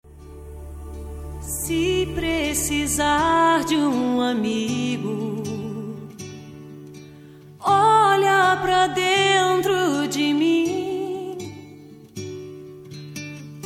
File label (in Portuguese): aniv amiga fem gospel, Aniversário de Amiga Gospel – Voz Feminina; Telemensagens para todas as ocasiões